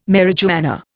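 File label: "marijuana", Indian English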